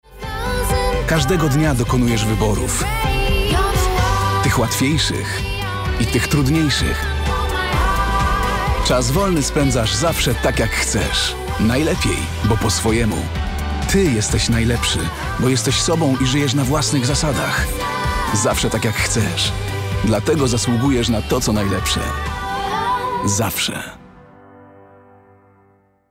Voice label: male polish image campaign

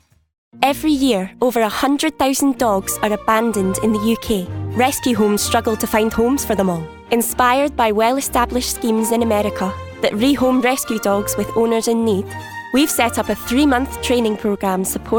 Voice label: female English Scottish west coast documentary; dogs trust

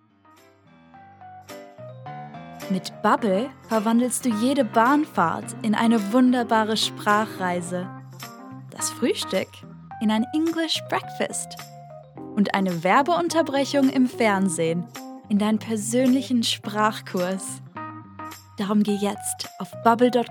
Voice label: female German compilation

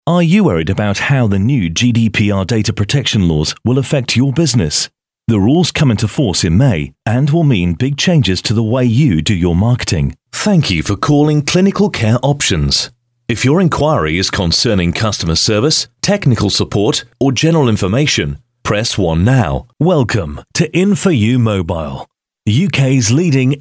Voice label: male english corporate and on hold compilation; Devine Voices

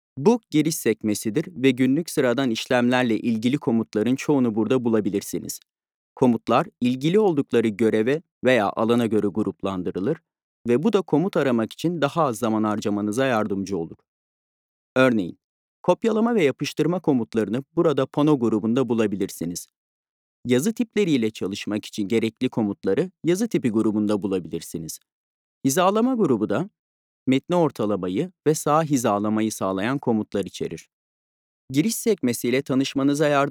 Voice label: male turkish software tutorial

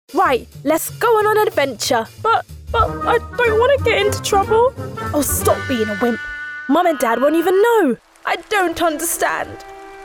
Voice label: female English character and gaming compilation

